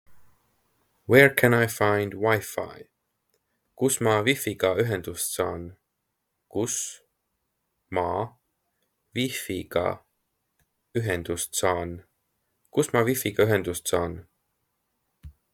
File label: Where can I find Wi Fi, Estonian; the talking estonian phrasebook